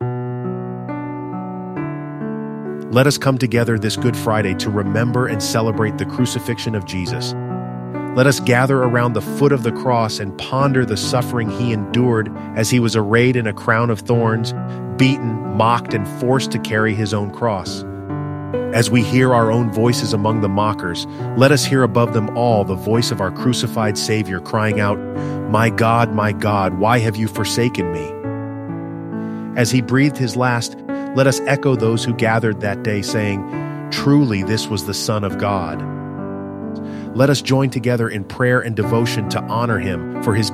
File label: Call To Worship Narration; GoodFriday; Worship Flow